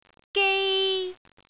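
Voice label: "gei1", Cantonese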